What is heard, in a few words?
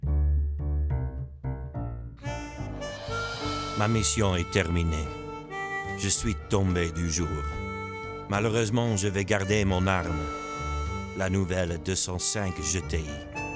french, international